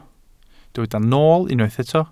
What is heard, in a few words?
"nool", Welsh